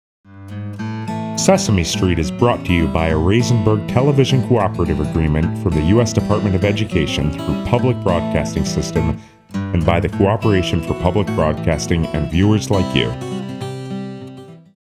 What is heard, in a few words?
voice over sesame street